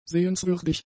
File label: "sehenswürdig", German; adjectives